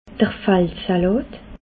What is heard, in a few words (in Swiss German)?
"faldsalat", Alsace